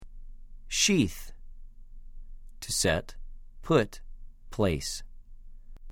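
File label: "שִׁית", Hebrew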